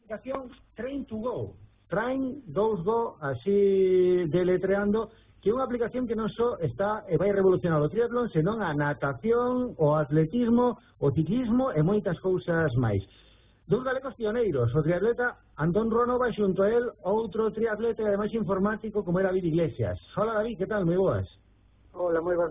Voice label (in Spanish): Entrevista Radio Galega